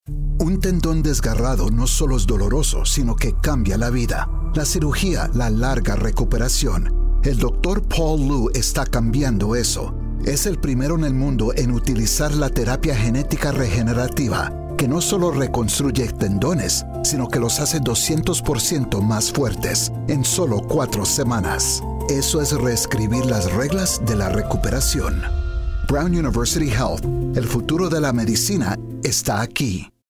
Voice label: Spanish Radio; Plastic Surgery; Illumination Campaign